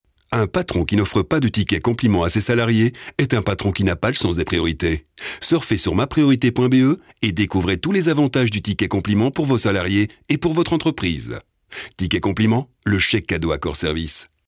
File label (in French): Voix M